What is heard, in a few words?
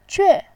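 "jue", Mandarin, fourth tone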